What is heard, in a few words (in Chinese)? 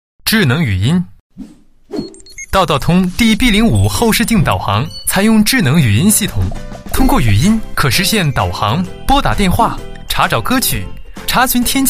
男-产品介绍-智能后视镜导航, 配音案例; 道道通D-B05智能后视镜导航